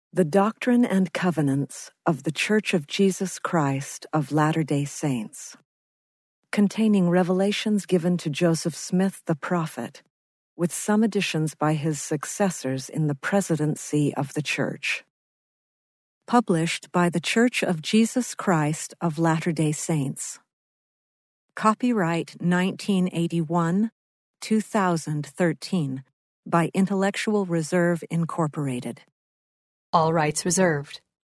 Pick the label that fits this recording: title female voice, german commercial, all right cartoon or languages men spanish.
title female voice